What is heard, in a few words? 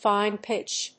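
"fine pitch", English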